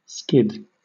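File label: "skid" English, British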